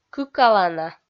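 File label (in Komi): "кыкалана", Komi, female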